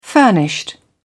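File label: "furnished", British English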